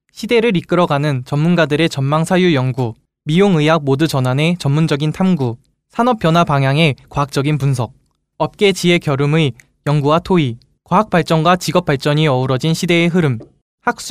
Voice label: MALE KOREAN

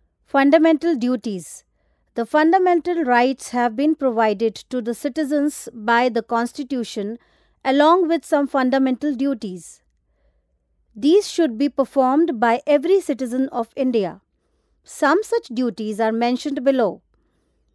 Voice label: Elearn English

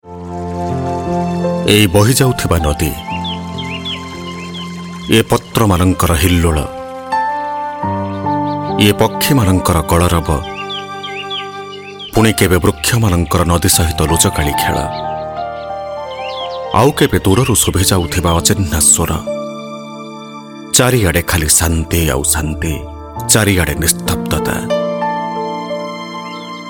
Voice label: promo, oriya

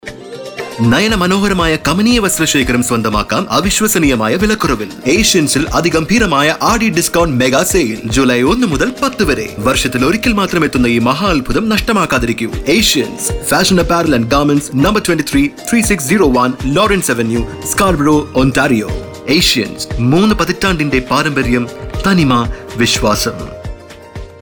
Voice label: radio Ad

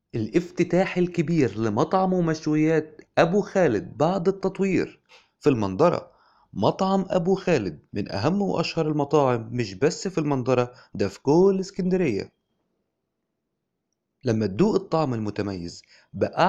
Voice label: promo arabic

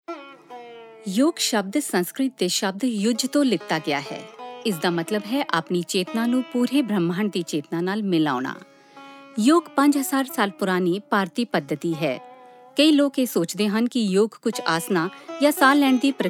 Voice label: narration Punjabi

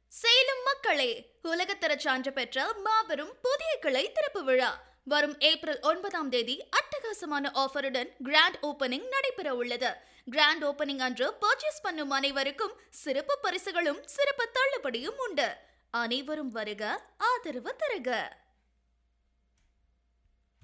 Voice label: tvc Tamil

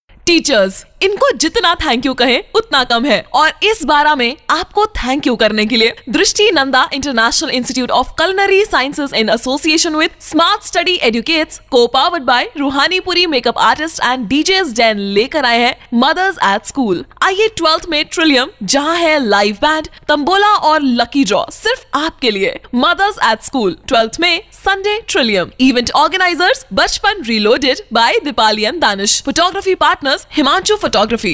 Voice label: hindi

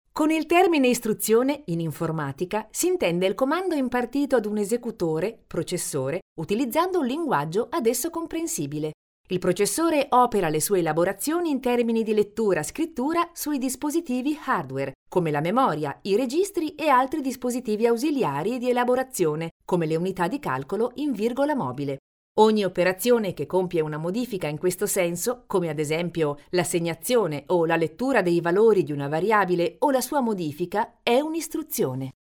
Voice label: elearning

